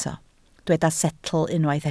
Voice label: "setl", Welsh